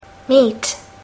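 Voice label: "meat", English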